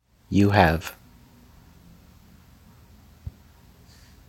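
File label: pronunciation "you have", English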